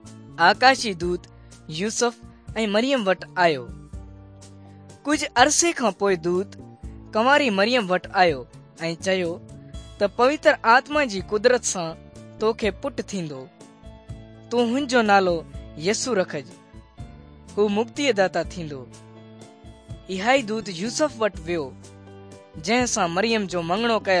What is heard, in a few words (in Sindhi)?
good news sindhi dukslinu, drama